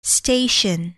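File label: "station", English word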